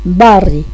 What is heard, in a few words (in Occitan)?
"barri", gasconha